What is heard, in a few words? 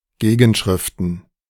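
"Gegenschriften", German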